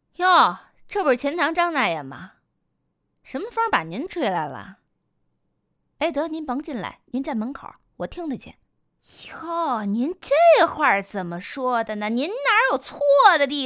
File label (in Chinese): 御姐音